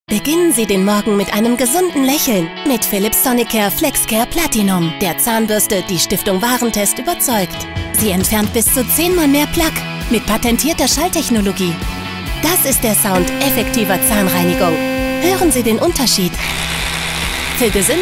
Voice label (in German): TV Spot OFF KOMPETENT JUGENDLICH; Philips Sonicare Flexcare Platinum